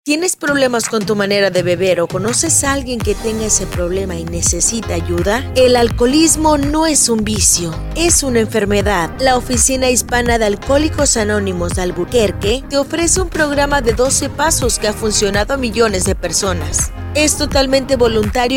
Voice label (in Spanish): radio Anuncio; IP radio Lobo; Grupo de Alcohólicos Anónimos